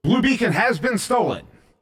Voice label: Stolen Blue, Announcer